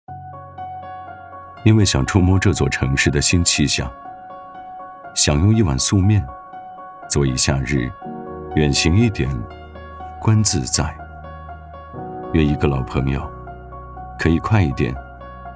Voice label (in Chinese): 男 城市微旅行 停留南京; 文旅配音